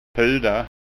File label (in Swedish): "puda", skanska ord